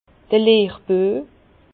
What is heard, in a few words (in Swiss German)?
"lehrbue", Bas Rhin; vin; compagnon apprenti